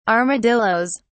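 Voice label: "armadillos", British English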